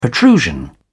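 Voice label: "protrusion", British English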